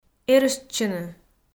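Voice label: "эрыштчыны", Komi, female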